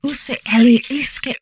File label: humanoid female; Stellaris